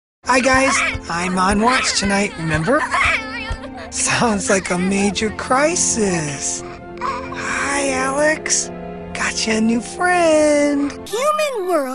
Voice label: Animation Demo